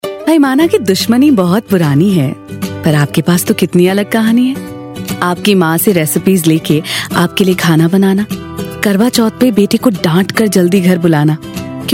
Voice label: Female